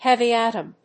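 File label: "heavy atom", English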